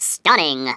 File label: "amazing", Stiff Upper Lip